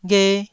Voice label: "ghe1", Taiwanese syllable